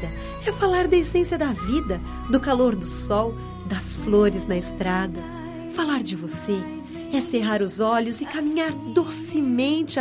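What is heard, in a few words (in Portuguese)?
Aniversário de Neta, female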